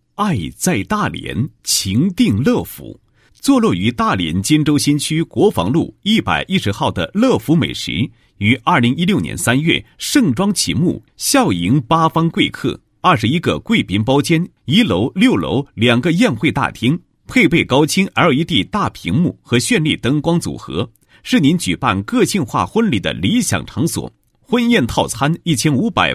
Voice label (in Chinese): 男 宣传广告; 乐府美食宣传短片